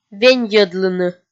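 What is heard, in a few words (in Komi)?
"веньйӧдлыны", Komi, female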